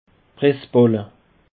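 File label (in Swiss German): "pressballe", Bas Rhin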